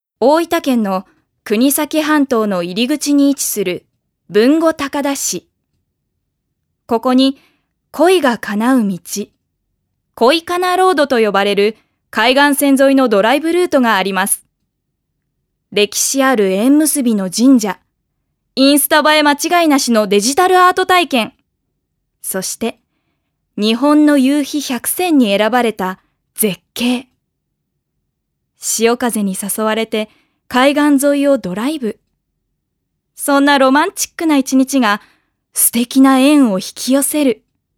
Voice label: female voice